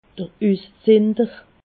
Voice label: "uszinder", alsace